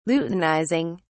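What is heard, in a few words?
"luteinizing", British English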